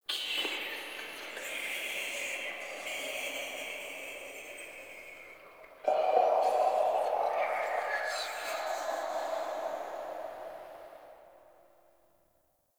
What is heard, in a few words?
Whispers; Inf Hub Lab; Many Lights; Warframe; Ambience; Entrati